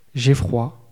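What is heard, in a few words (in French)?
"j ai froid" French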